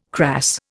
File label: "crass", Indian